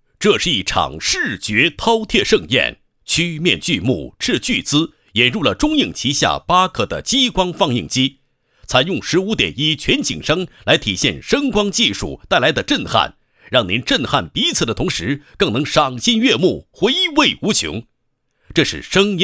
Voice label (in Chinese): 男 宣传广告 ktv广告 大气激情, 国语男声配音